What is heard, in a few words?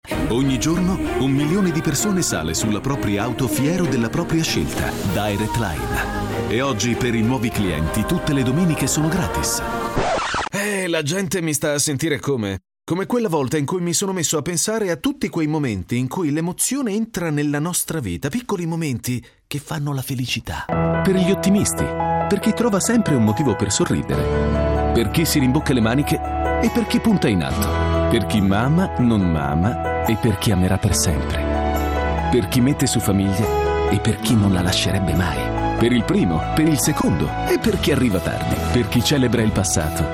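male, Italian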